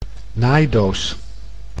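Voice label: "naaidoos", Dutch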